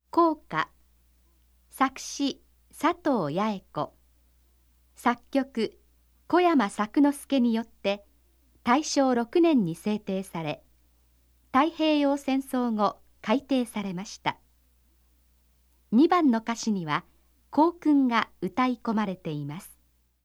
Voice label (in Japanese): ナレーション